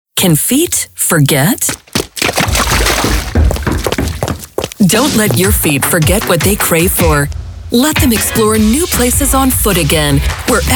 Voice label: radio; bratislava tourist board